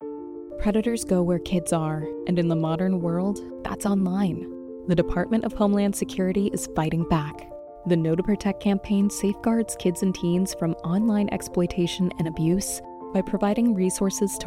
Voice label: Radio Ad; DHS; Know2Protect; department homeland security